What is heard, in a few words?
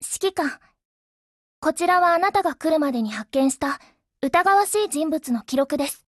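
HELLO Japanese, Voice; GF Alarm; HS50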